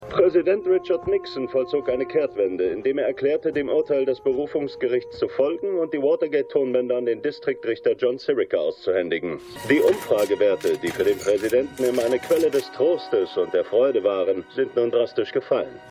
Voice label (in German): Nachrichtensprecher; Gaslit